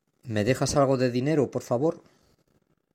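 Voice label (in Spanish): "dinero", Spanish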